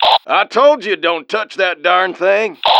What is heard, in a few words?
team radio; tf2; engi